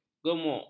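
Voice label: "gumuk", Malay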